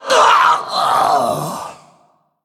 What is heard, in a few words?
Male Forsaken Death